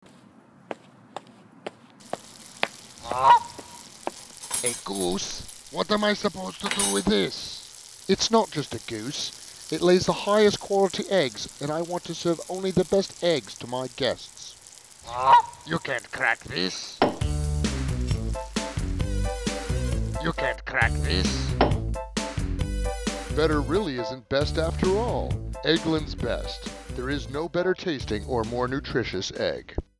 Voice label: Commercial